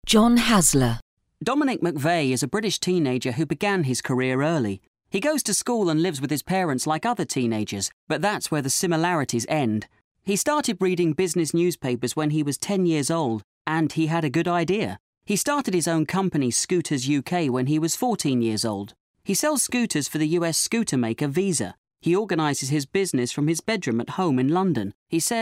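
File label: narration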